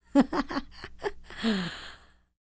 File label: SPEAKING, Vocal Jazz Fx